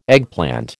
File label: "eggplant", American